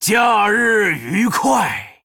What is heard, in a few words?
Male Troll HAPPY HOLIDAYS, zh